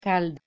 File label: "cald" Anglian